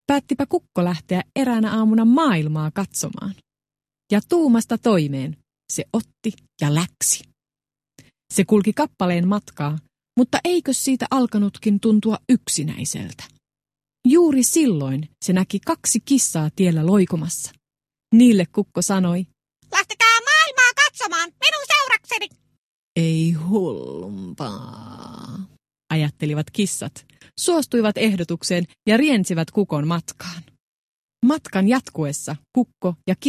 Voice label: Finnish character; voice over